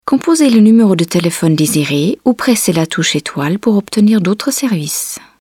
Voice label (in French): Français bilingue ( Fr non Maternel); casting de voix-off; taurus-studio